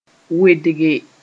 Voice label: "wedge", Mooré